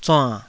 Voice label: "zuann3", taiwanese